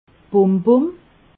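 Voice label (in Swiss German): "bombom", Alsatian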